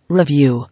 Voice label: "Review", English